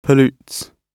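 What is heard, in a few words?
"pollutes", British English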